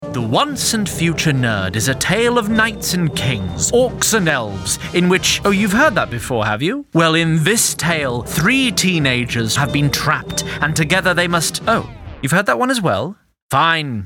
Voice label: Trailer